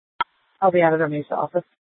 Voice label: Sheriff, Maricopa County P25; Shrf Lakes

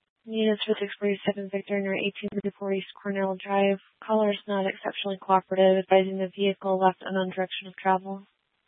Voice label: Tempe PD, scanner; Rgnl Wireless Co-op (RWC)

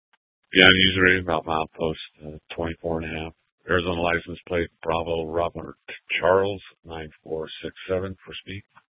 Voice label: Sheriff, scanner P25

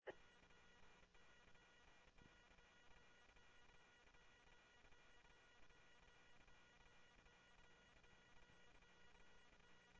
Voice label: Police, scanner; SCTSDLE PD - PD1; H-Scottsdale; ScottsdalePolice

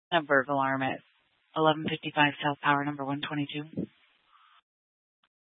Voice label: Dispatch, scanner

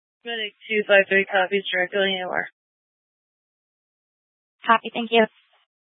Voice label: Fire Departments, scanner

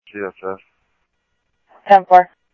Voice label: Scottsdale PD Scottsdale Police, scanner audio

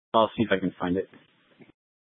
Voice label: Police Dispatch; Paradise Valley Police; Simulcast H- Scottsdale

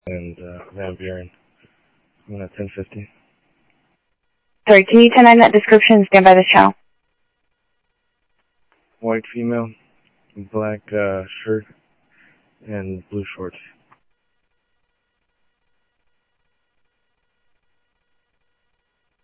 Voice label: Sheriff-WestCnty, scanner audio

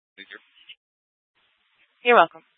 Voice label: Fire Departments Mesa Simulcast; TOPAZ

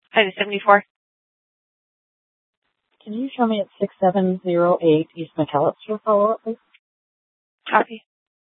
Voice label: Superstition Dispatch, Mesa PD

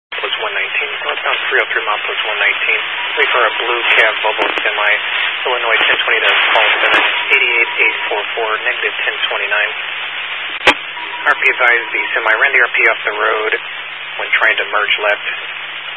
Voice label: Highway Patrol, Phoenix Metro West Area, scanner

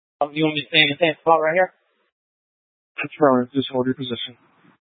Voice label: Light Rail Emergency, scanner

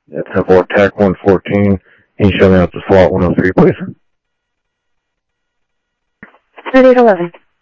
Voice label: scanner audio; Thompson Peak; DPS CI-Ctrl

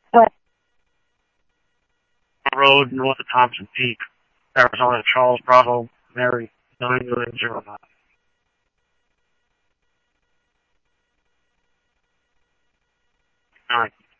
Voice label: Police, scanner

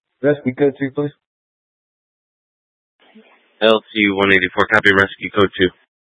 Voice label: Phx PD Fire Dispatch

